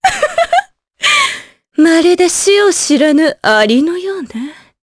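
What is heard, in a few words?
vox dia Japanese; Maria; kingsraid; jp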